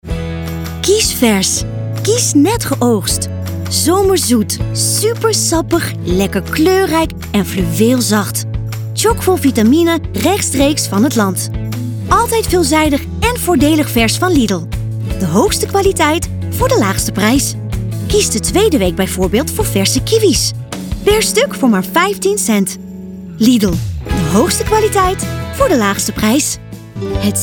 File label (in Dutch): Dutch